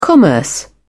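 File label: "commerce", English, British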